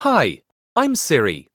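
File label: English, Ireland; iOS Sounds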